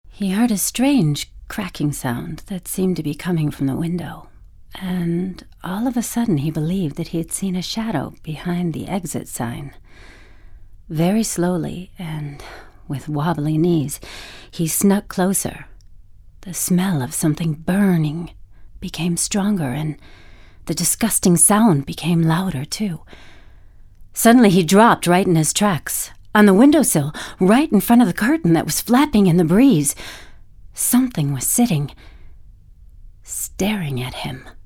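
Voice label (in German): US English, Schauspiel Sanft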